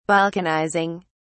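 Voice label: "balkanizing", British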